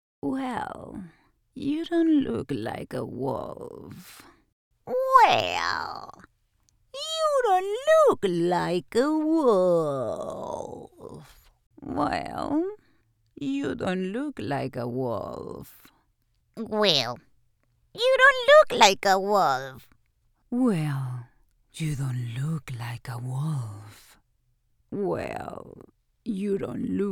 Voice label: english impersonations

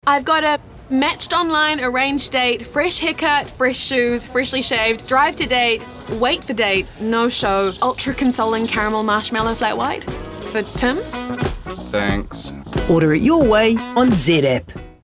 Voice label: English New Zealand radio imaging

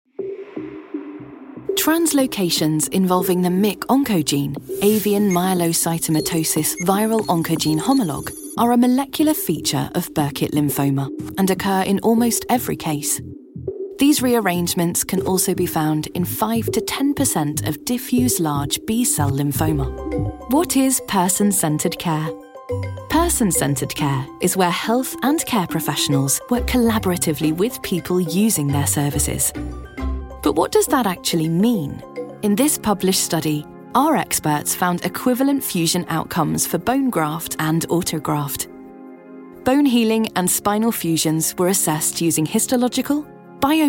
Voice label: english british medical narration

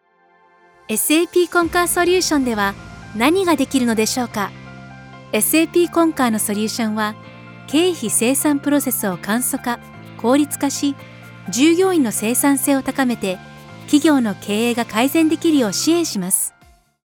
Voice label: japanese explainer videos

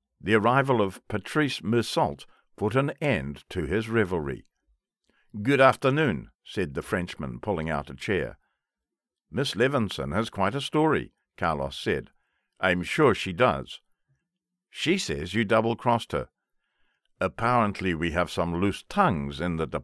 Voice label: english mid atlantic audiobooks

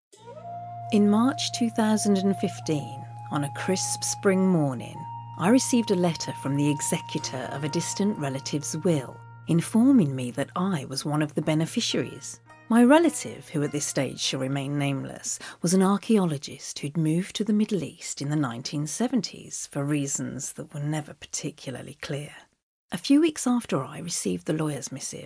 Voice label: english british narration

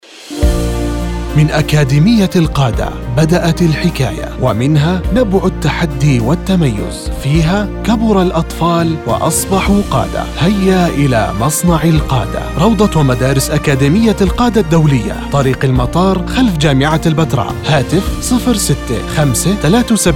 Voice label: arabic promos

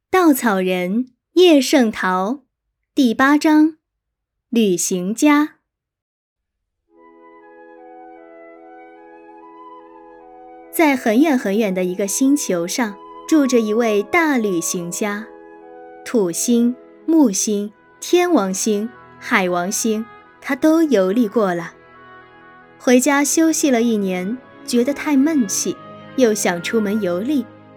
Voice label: chinese mandarin audiobooks; voice actor

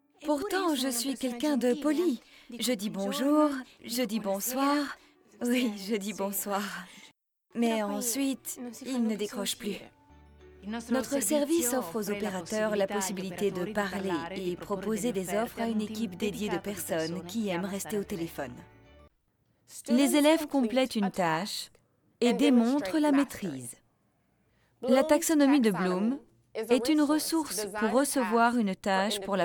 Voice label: french others

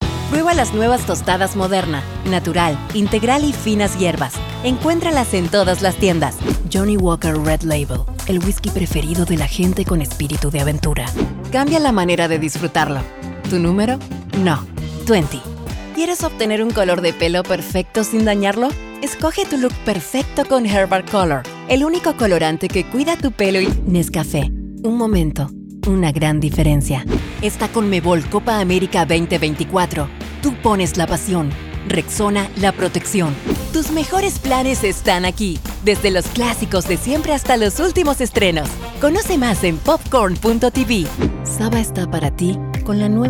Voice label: spanish latin american commercial; Sou dubladora registrada na cidade de Buenos Aires, Argentina; Espanhol (Argentino)

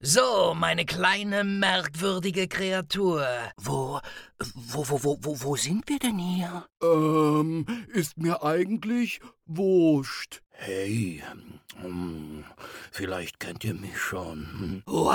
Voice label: german animation

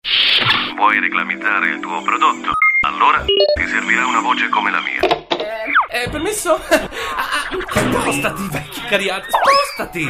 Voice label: italian animation

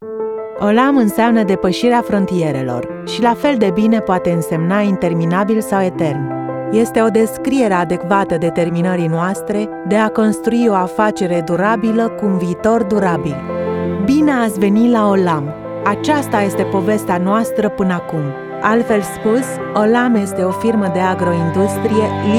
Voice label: romanian narration

